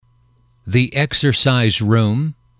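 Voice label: "exercise room", English, male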